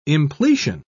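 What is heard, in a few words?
"impletion", British English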